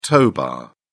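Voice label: "tow bar", British English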